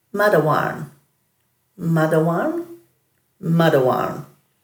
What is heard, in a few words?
"madhawarn", Dhurga